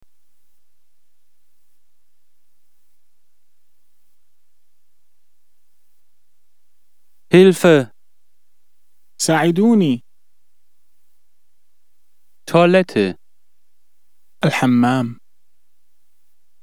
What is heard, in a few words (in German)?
arabisch